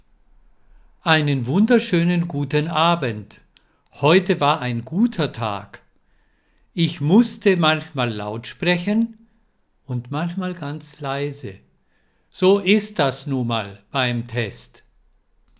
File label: Rode